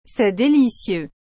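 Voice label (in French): "Cest delicieux", French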